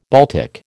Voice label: "Baltic", American

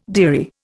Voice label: "dearie", Indian

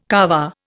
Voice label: "Kaaba", Indian

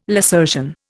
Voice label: "lacertian", Indian

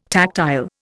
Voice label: "tactile", Indian

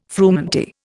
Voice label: "frumenty", Indian

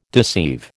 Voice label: "deceive", American

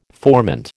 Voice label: "formant", American

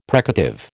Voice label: "precative", American

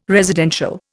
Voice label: "residential", Indian

